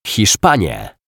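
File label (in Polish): "Hiszpanie", Polish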